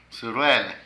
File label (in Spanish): Pantalones, Zapoteco de Petapa; Ropa; Vocabulary